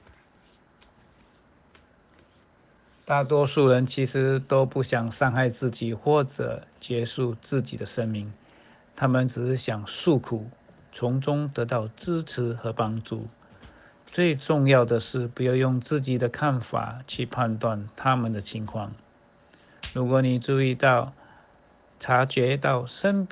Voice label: Mandarin; mental health services

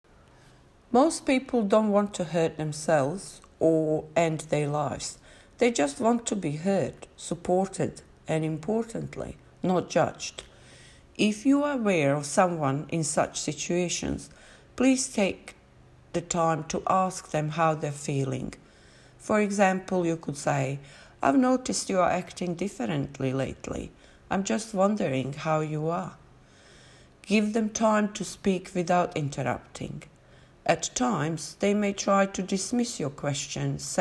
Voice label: English; mental health services

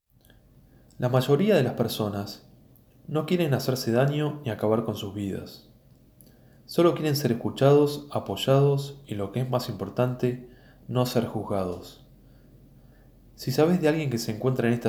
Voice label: Spanish; mental health services

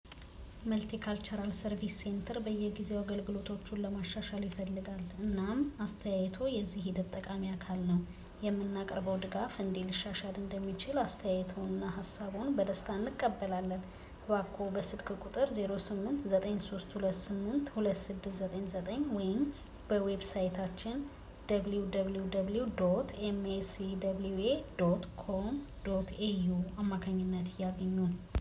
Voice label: feedback Amharic

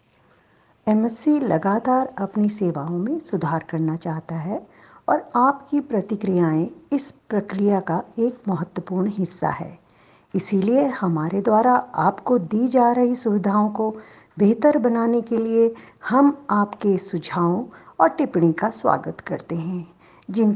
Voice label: Feedback Hindi

